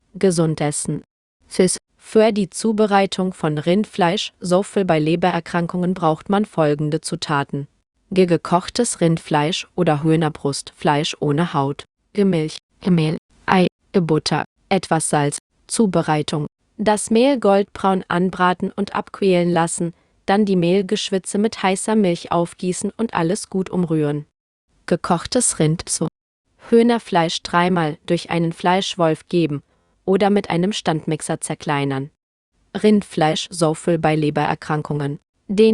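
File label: de-DE neutral speech, German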